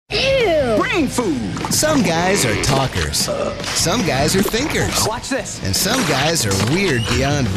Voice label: american promo

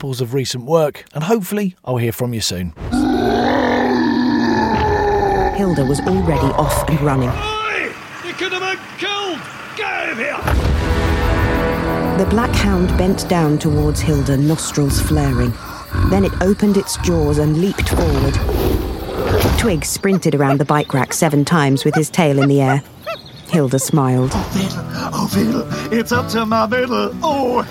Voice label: character

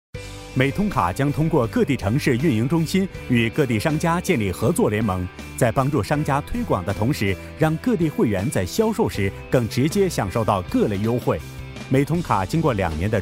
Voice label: promos

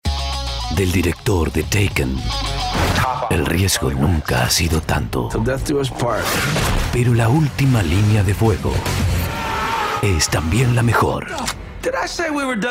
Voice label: movie trailer

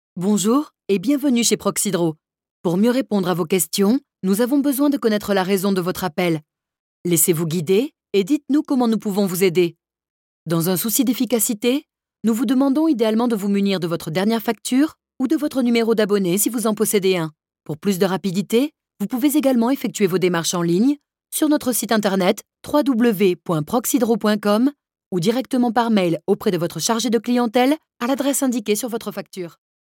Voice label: french voice telephone

